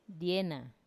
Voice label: "diena", he sleeps, Wamesa

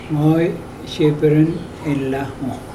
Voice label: I am not going there today, Mokilese

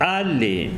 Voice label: "luna", Tsesungun

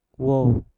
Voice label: "wob", Mpur; badan

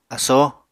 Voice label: "asoo", Apatani

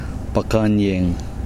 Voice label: cloud, Kosraean

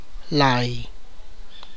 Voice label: "tooth", tlacochahuaya

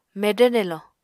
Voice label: "medvr nelo", Apatani

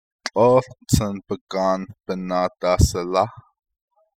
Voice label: navajo, I hung the rope over the tree limb; postposition

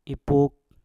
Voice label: "ipuk", Mpur; pinang

